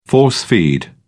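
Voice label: "force-feed", en, uk British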